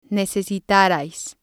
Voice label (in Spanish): "necesitarais", spanish